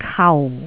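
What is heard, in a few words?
"kau4", Cantonese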